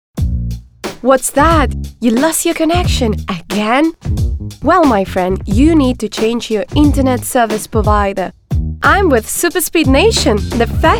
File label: female russian english with russian accent commercial